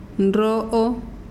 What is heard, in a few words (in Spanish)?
"piedra", mazahua